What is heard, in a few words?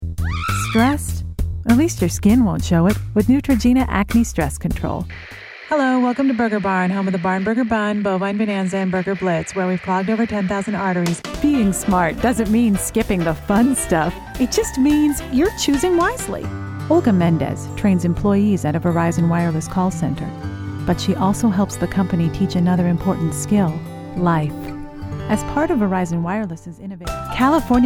Commercials